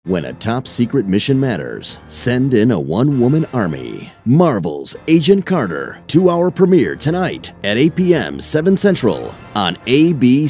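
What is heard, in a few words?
US phone voice, male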